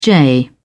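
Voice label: "jay", British English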